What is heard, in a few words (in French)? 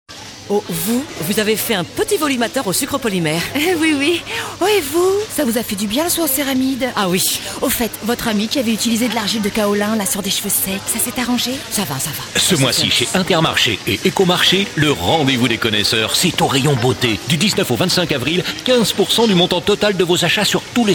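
gentille, comédienne voix off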